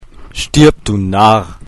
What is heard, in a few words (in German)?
Narr